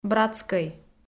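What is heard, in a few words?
"братскӧй", Komi, female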